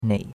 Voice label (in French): "nei3", chinois; Cours-de-Chinois